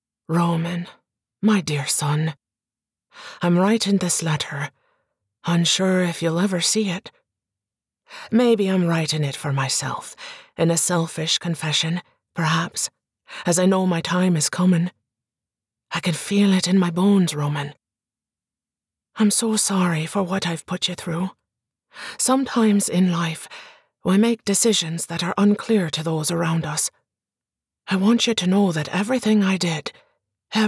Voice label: ACCENT IRISH epistolary narrative